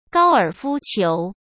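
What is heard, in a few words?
"高尔夫球", Mandarin Chinese; chinese-flashcards; hsk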